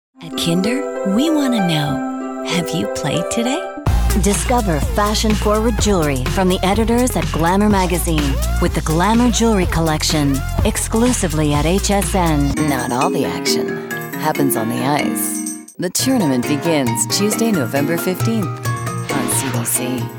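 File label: English Canadian, female, voice over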